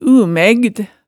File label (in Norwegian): "umægd", hemsedalsmaalet tale